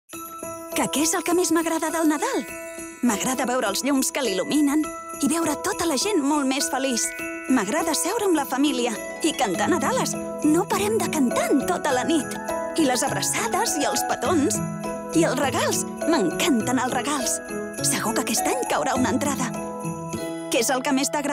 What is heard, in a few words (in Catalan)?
Catala; FCBarcelona